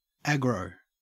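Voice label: "aggro", Australian English; pronunciations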